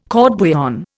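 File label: "court bouillon", Indian English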